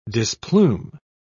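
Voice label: "displume", British English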